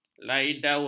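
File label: "laidaun", Malay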